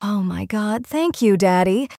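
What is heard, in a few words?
thank you daddy, female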